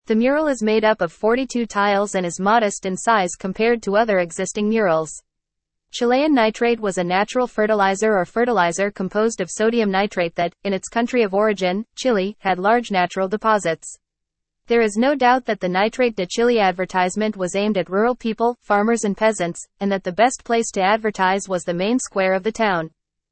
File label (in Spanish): AUDIO INGLES; CARTEL CERAMICO NITRATO DE CHILE